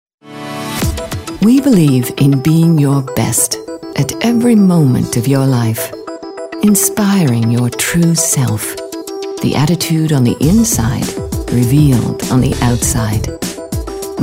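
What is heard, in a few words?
british, voice-over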